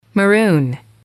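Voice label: "maroon", English, female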